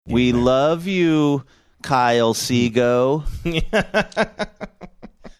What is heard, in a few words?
singsongy